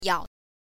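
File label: "yao", Mandarin Chinese, neutral tone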